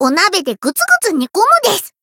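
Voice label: Voice encount, Japanese; loveli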